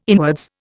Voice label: "inwards", Indian

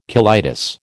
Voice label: "colitis", American

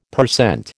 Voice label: "per cent", American

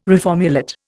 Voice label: "reformulate", Indian

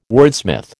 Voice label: "wordsmith", American

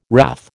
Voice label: "wrath", American

